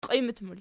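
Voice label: "qiimat" plural, Archi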